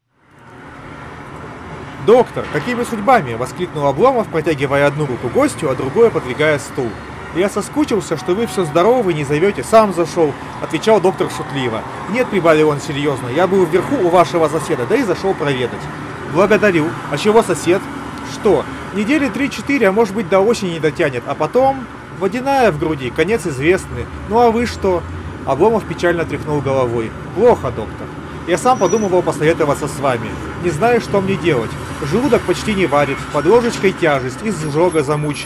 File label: Recorder noise; Запись на профессиональный рекордер; huawei freebuds 7i